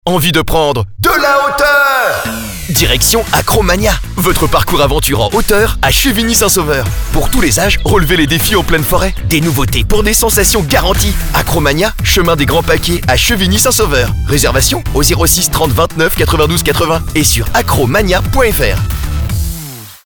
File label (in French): spot radio; sun hit radio; acro mania